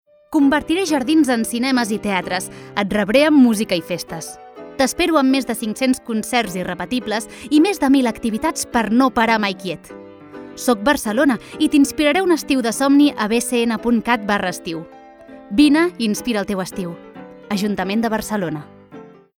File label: female catalan festival comercial; ideasonora